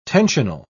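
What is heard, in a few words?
"tensional", British English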